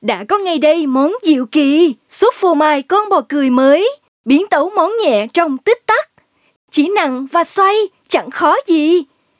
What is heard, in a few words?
Vietnamese Female